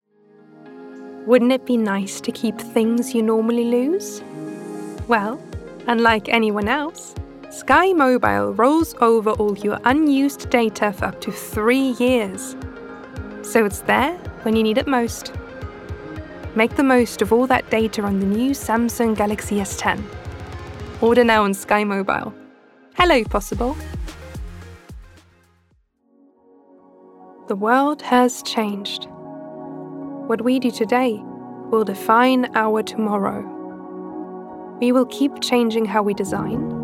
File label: female German English with slight German accent compilation; Devine Voices